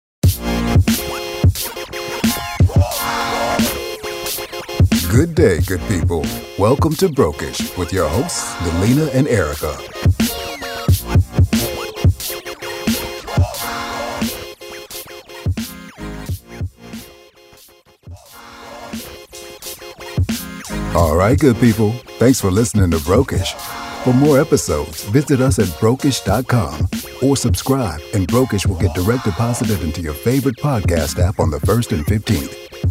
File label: english american podcasts